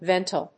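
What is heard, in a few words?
"ventail", English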